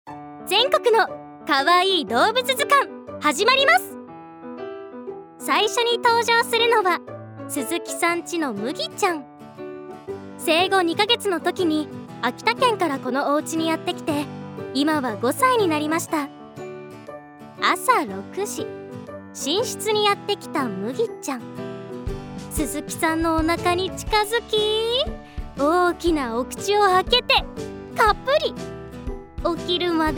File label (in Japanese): ナレーション